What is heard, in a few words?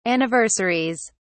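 "anniversaries", British English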